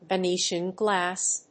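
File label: "Venetian glass", English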